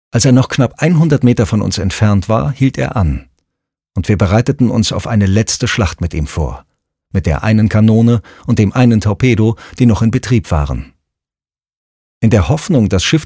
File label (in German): Zitate Britische auf Deutsch